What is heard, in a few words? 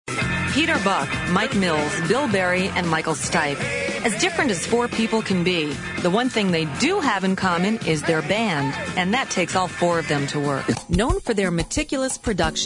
narration; commericals, industrials, promos